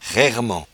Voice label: "rairemant", patois jura

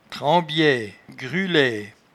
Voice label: "trembiaie", patois jura

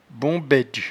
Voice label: "bombaidge", patois jura

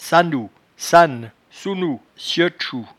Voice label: "sannou", patois jura

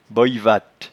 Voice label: "boyevatte", patois jura

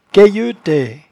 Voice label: "cayeutaie", patois jura

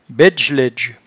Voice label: "baidgelaidge", patois jura

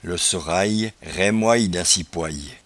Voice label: "losoraye", patois jura

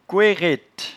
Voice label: "couerette", patois jura

